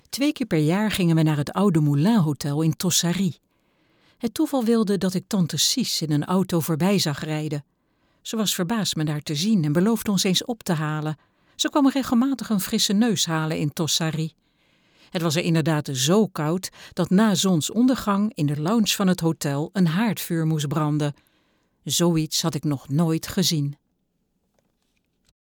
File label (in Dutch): luisterboek fragment